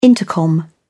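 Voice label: "intercom", English, British English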